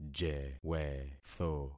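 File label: "jewetho", concatenated syllables; Affixing experiment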